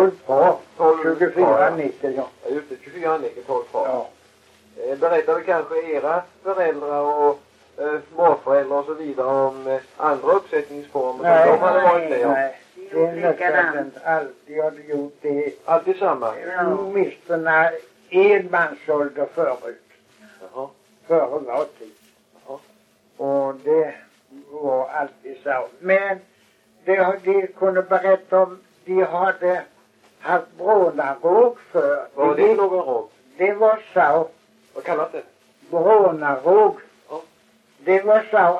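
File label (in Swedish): Kristianopel, Swedish dialect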